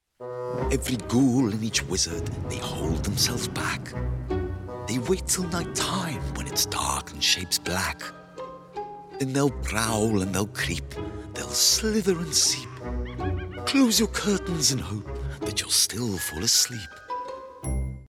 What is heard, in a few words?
Commercial sample